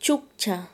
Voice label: "cabello", Quechua Chanka

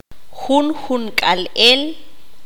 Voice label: cada vez, qanjobal

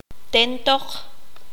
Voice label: "empujar", Q'anjob'al

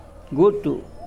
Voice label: Nawat palabras corto; pipil

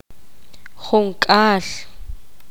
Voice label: poqomam veinte

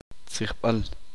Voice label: uspanteko idioma

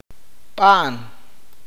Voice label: "bueno", awakateko

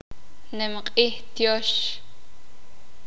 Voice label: "Feria", Sakapulteko

